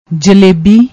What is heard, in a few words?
"jallebi", Punjabi